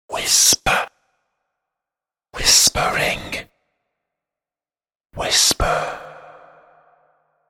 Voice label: The word ‘Whisper’ and ‘Whispering’ whispered in male voice x 3; Whisper (Spoken); Whispering (Sound Effects:Voice)